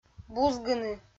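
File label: "бузгыны", Komi, female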